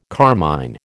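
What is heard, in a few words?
"carmine", American English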